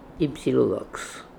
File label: "Ypsylodox", pronounciations; Fourth Way; Beelzebub